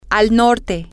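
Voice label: female, spanish; to The North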